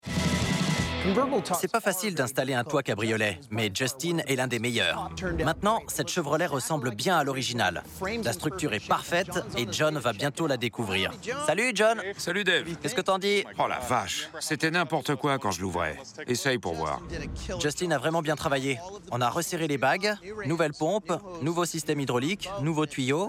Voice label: VOICE OVER; Full Metal Plaquette